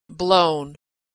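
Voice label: "blown", American English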